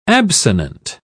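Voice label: "absonant", British English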